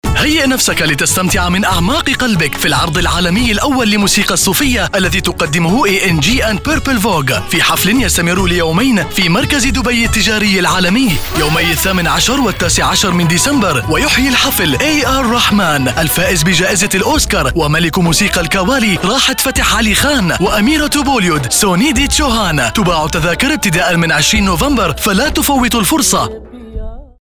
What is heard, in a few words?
Arabic Radio TV Commercial Voice